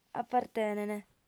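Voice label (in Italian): "apartenent", sarda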